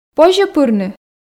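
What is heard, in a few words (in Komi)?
позьӧ пырны, Komi, female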